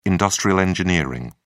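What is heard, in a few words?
"industrial engineering", British English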